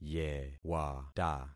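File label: "yewata", concatenated syllables; Affixing experiment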